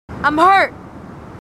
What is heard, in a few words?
marines female, marine tough